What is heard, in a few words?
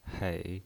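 "hei", Mandarin Chinese, third tone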